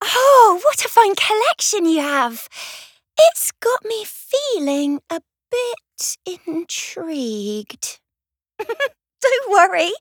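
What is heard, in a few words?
voice english; Tabethe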